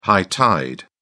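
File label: "high tide", English, British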